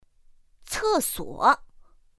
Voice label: "cèsuǒ", pinyin; pinyin2; pinyinji

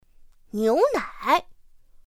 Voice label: "niúnǎi", pinyin; pinyin2; pinyinji

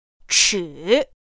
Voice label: "chǐ", pinyin; pinyin2; pinyinji

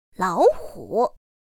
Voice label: "lǎohǔ", pinyin; pinyin2; pinyinji

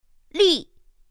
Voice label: "lì", pinyin; pinyin2; pinyinji

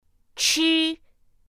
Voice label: "ch", pinyin; pinyin2; pinyinji

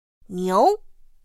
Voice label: "niú", pinyin; pinyin2; pinyinji

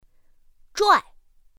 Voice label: "zhuài", pinyin; pinyin2; pinyinji

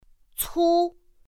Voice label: "cū", pinyin; pinyin2; pinyinji

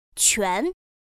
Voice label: "quán", pinyin; pinyin2; pinyinji

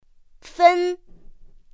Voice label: "fēn", pinyin; pinyin2; pinyinji

